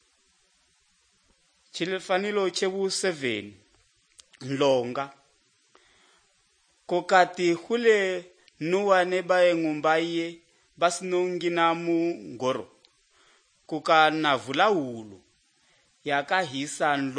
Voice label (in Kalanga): good news kalanga lilima, drama